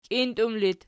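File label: "kintum" locative, Archi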